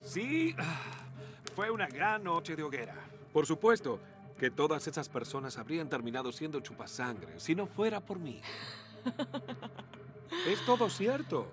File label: dialogue latino; outro; inFAMOUS Festival of Blood; ps3